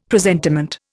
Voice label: "presentiment", Indian; Dictionary